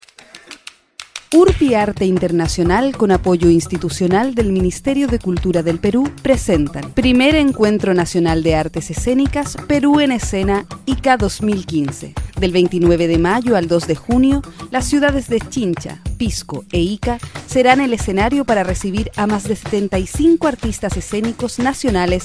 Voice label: Locucion; Peru en escena

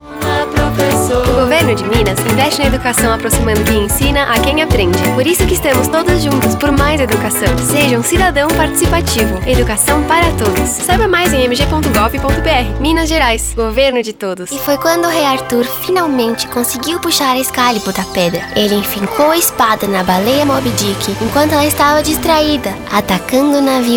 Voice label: Infantil

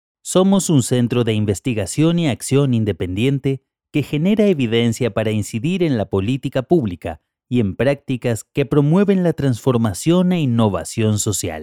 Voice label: NEUTRO INSTITUCIONAL

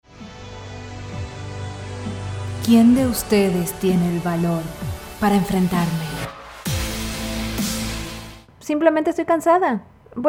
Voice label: Neutro Actings

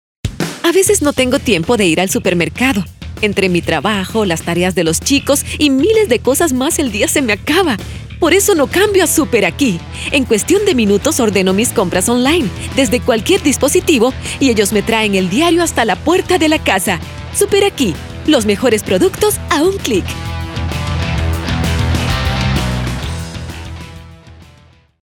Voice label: Locucion Coloquial

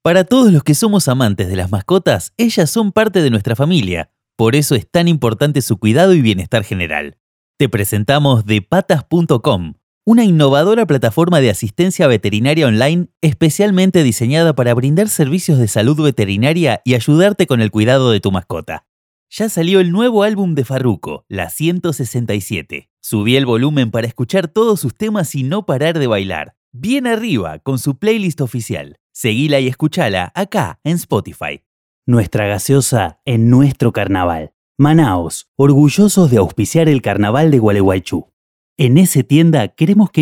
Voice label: COMERCIAL RIOPLATENSE

